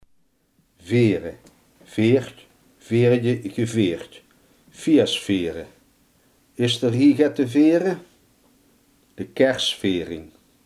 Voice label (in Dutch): "vieren", limburgse